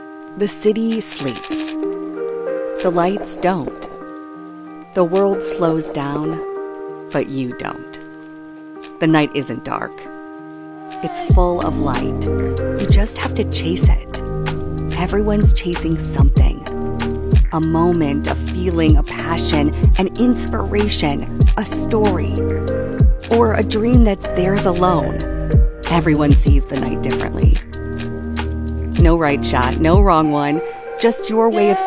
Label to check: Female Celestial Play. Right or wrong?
Wrong